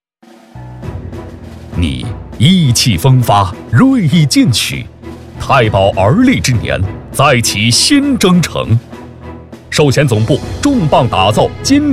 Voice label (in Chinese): 男 铿锵节奏 大气浑厚; 寿险总部